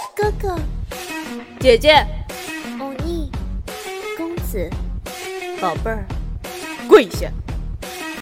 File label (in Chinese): 各种 声线 萝莉 正太 少女 少御 御姐 女王